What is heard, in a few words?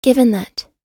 given that, US English